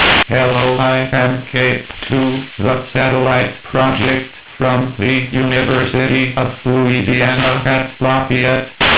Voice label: synthesized voice; Cape2